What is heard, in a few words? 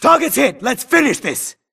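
grunt detect Player Low Health; imc; Titanfall 2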